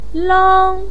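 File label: "lang" first tone, chinese, voice pinyin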